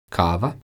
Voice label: "kava", croatian

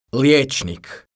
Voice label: "Lijecnik", croatian

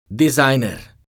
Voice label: "dizajner", croatian

